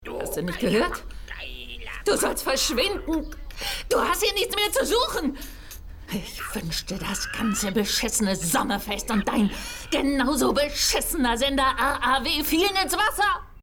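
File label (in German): Hörspiel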